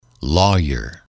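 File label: "lawyer", English, male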